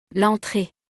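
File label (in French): "l entree", French; vocabulaire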